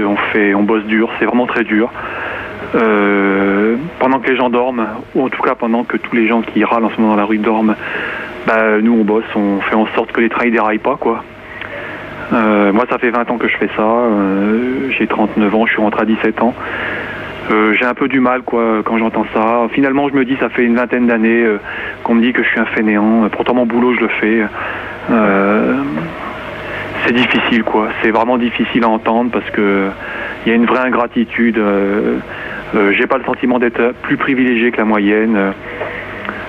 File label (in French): Temoignage d un greviste SNCF, repondeur